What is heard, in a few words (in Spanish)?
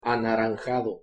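"anaranjado" Spanish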